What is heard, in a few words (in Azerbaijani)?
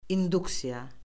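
"induksiya", Azerbaijani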